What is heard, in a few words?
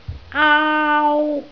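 "aau1", Cantonese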